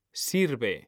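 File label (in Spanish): "sirve", Spanish; mekanta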